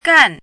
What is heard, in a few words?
"gan4", pinyin